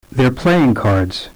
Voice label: They're playing cards Compound, Stress